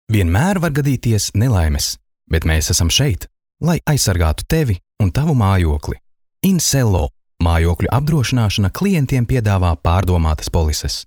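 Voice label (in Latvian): Reklama, advert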